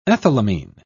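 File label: "ethylamine", British English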